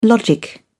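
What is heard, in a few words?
"logic", British English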